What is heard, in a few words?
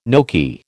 "gnocchi", American